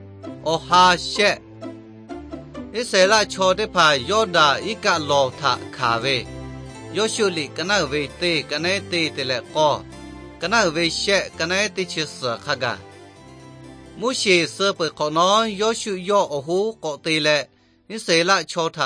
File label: victory through god lahu na, nt drama